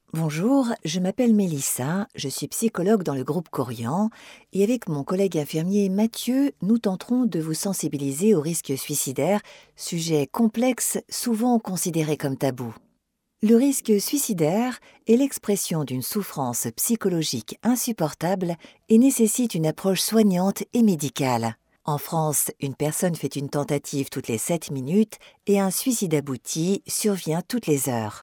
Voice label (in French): E-Learning; suicide